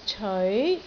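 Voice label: "ceoi2", Cantonese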